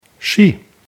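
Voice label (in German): "Ski", German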